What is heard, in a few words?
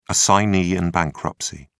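"assignee in bankruptcy", British English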